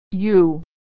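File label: "u", American English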